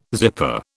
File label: "zipper", British